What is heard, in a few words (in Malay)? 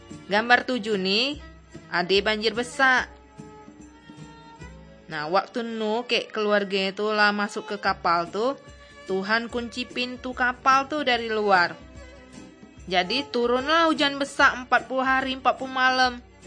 kabar baik good news malay bangka